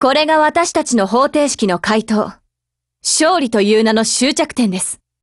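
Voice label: WIN Japanese, Voice; GF Alarm; Contender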